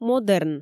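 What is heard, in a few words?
"модерн", Komi, female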